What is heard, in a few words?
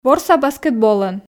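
"ворса баскетболӧн", Komi, female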